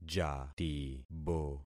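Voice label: "jatibo", concatenated syllables; Affixing experiment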